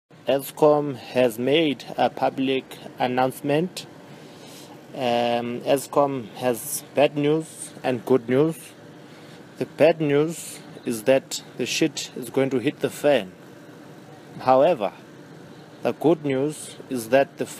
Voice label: news announcement; Eskom